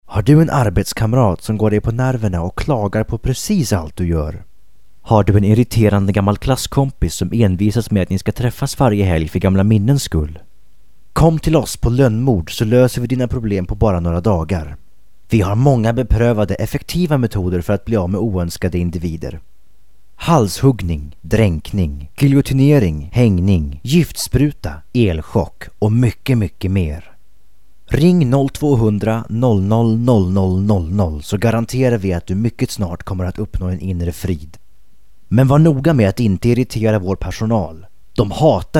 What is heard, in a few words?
Swedish